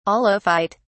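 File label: "aulophyte", British English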